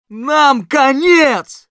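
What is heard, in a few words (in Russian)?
negative vocalization, Russian; Scout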